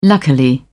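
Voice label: "luckily", British English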